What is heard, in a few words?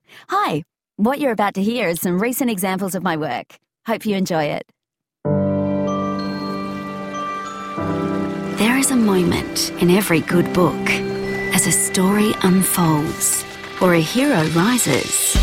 english australian commercial